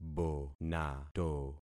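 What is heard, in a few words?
"bonato", concatenated syllables; Affixing experiment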